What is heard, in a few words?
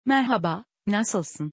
hello how are you in turkish; greetings in turkish